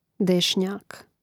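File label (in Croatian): "desnjak", Hrvatski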